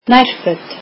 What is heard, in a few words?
"underwear", Icelandic